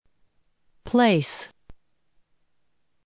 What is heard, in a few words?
"place", listening